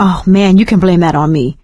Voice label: sorry, survivor voice; Left 4 Dead 2; producer